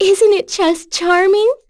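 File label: vox dia, voices heroes English; kingsraid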